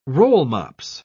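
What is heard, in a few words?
"rollmops", English, British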